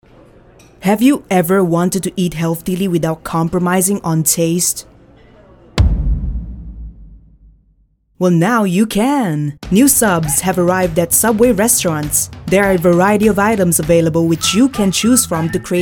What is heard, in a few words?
ADVERT